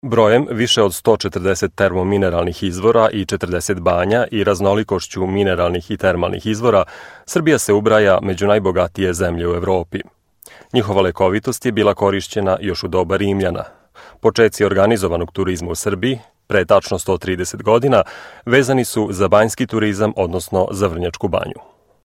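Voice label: serbian male voice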